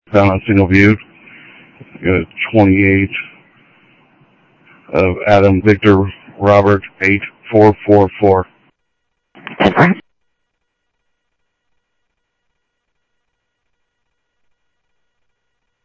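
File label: Sheriff East County, scanner audio trunk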